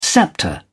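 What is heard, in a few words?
"sceptre", British English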